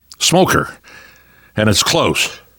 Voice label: heard smoker, survivor voice; namvet; Left 4 Dead 2; left4dead2 dlc2